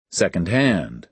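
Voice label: "second hand", American English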